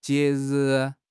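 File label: "jie2 ri4", Chinese; HSK; HSK3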